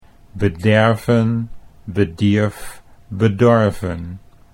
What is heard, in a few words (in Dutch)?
"bederven bedierf bedorven", Dutch